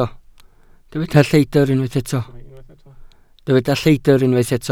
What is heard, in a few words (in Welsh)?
"lleidr" Welsh